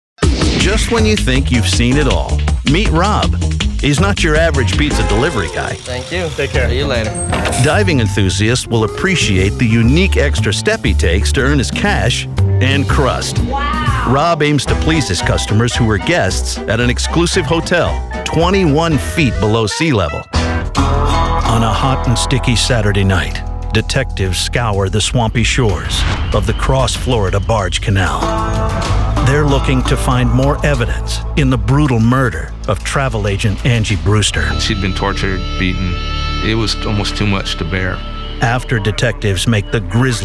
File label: TV Narration